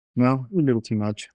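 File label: Voice Acting es M, Spanish, male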